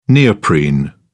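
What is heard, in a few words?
"neoprene", British English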